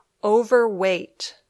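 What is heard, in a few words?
"overweight", English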